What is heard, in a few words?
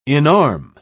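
"inarm", British English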